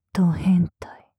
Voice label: 変態・強く・極近・左, ASMR

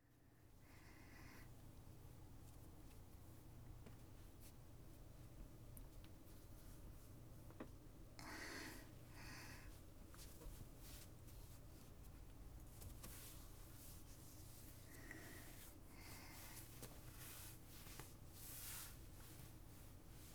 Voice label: 吸引バイブ挿入後、道具の準備, ASMR

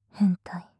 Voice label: "変態"・極近・右; マゾオスのあなたに敗北射精の快楽を叩き込む音声

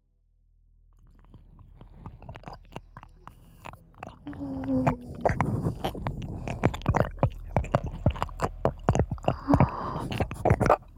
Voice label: 添い寝・ちょっとエッチなささやき; ムラムラとまどろみの中で; 手コキしながらイチャイチャ

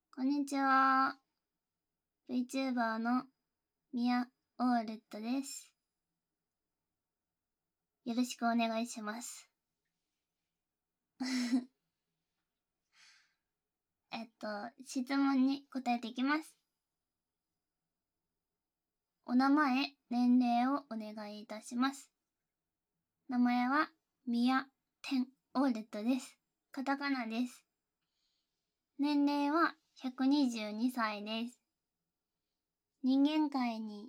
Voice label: ごあいさつとインタビュー, ASMR

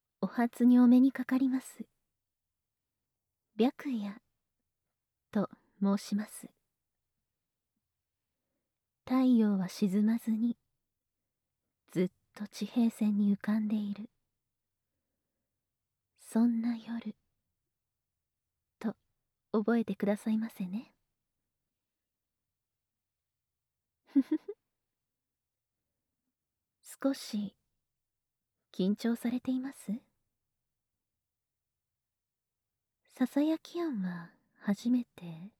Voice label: ASMR, あいさつ

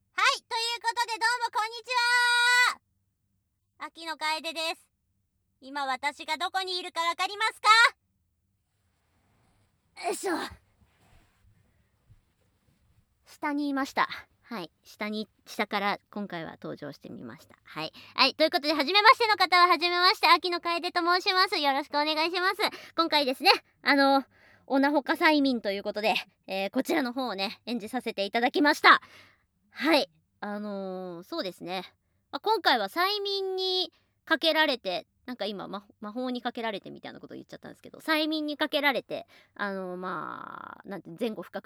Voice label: フリートーク; 【後半オホ声】オナホ化催眠～尻軽ヤンキークラスメイトを催眠アプリでコキ捨てオナホ化～【快楽メス堕ち常識改変】

